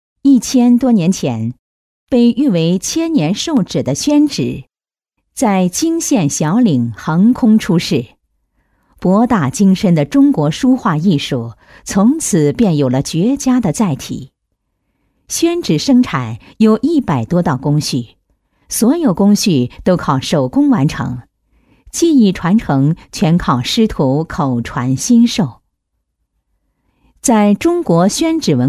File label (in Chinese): 女, 专题配音, 宣纸, 大气正式; 成熟稳重; 沉稳大气